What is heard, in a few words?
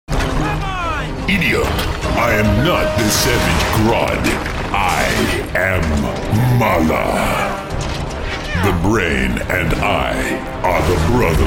Animation